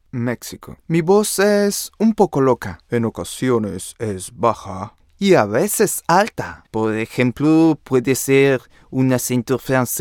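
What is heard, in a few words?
Latin American Spanish Animation; GoLocalise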